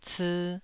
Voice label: "cii2", Taiwanese